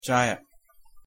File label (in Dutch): "ചായ", Malayalam; thee-in-andere-talen